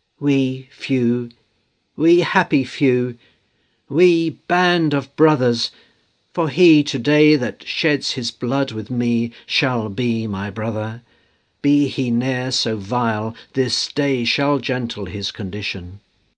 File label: speech anechoic; reverb demo